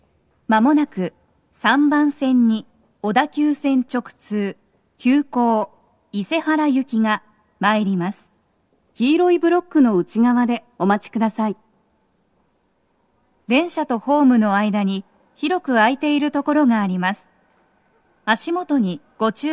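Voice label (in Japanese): 接近放送 女声, 急行 伊勢原行き; 放送は「急行 伊勢原行き」です。; tokyometro chiyodaline hibiya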